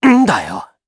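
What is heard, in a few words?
Vox, voices, Japanese; Neraxis; kings raid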